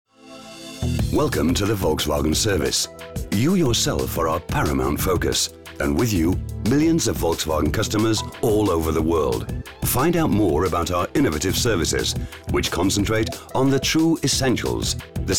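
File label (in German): British englisch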